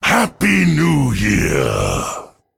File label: Male Drust Emote HAPPY NEW YEAR LUNAR